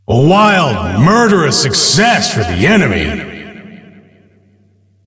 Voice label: Announcer; Awesomenauts; Ringmaster